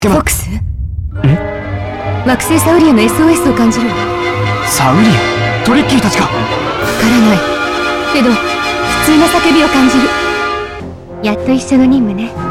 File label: Japanese; Assault; Sauria